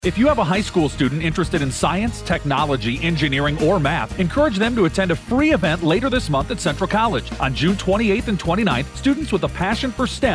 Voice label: ad